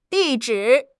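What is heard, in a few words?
"di4 zhi3", Chinese; HSK4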